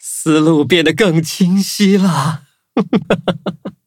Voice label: levelup zh-hant; Cookie Run